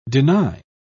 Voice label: "deny", pronounce

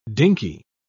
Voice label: "dinky", pronounce

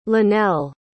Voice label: "lonnell", pronounce

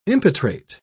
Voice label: "impetrate", pronounce

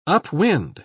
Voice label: "upwind", pronounce

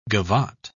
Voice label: "gavotte", pronounce

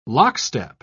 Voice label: "lockstep", pronounce

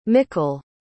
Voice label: "mikkel", pronounce

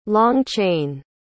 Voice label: "long-chain", pronounce

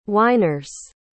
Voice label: "whiners", pronounce

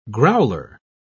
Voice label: "growler", pronounce

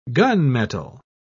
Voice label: "gunmetal", pronounce

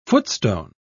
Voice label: "footstone", pronounce

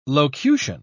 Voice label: "locution", pronounce

